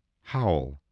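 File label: "howl", English word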